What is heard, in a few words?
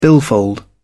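"billfold", English, uk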